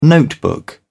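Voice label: "notebook", British English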